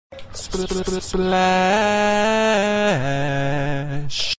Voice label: autotune triple splash; chris smoove